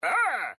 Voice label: man bigwin suprise; gonzosquest